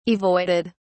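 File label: "avoided", British English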